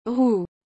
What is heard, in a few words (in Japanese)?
"roue", フランス語; 女性名詞